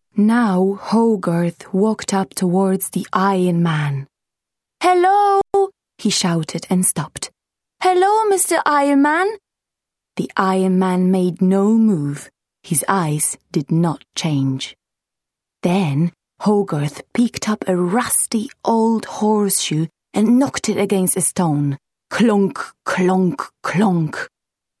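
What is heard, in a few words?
Polish, English; Eastern European, Polish, Male, Home Studio, 30s-50s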